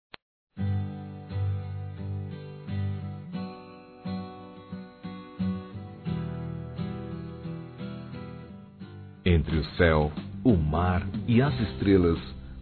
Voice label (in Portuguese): Casamento Masculino; Telemensagens; Parabenização